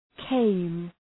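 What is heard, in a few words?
"came", English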